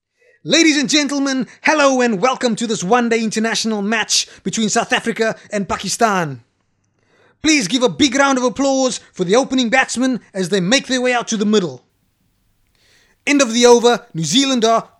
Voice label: commentary; cape town south africa